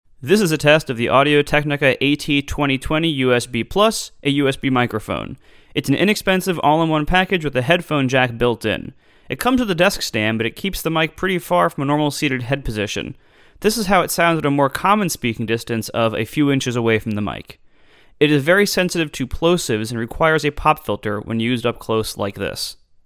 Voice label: AT2020USBPlus close distance, microphones